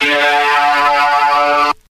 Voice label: "yeah" robot, voice